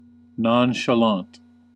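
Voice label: "nonchalant", US English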